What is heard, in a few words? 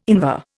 "Invar", Indian